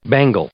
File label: "bangle", English